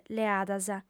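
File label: "leadas", Sardinian, female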